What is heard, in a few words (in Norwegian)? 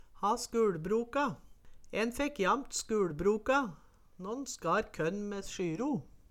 ha skuLbroka, Numedalsmål